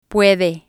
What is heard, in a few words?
"puede", Spanish